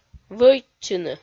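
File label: "вӧйтчыны", Komi, female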